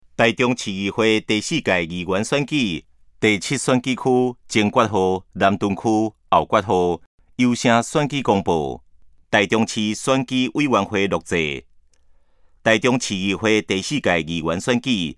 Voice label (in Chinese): 前言, 台語; 臺中市; 市議員; 第07選區; 有聲公報